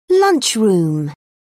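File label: "lunchroom", British English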